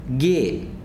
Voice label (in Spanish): Zapoteco piedra; miahuatec